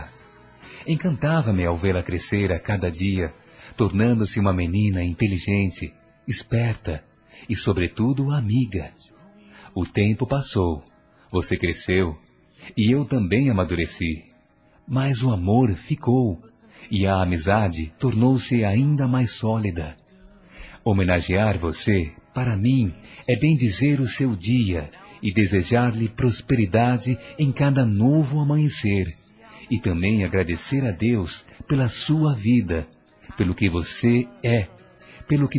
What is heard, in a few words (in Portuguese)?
HOMENAGEM À SOBRINHA M; Homenagens; masc